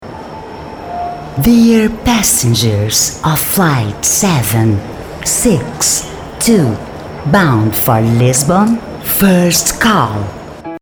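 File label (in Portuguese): INGLÊS chamada de vôo, Voz Madura, Feminino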